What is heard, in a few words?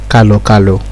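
"kalokalo", Nigerian Pidgin, male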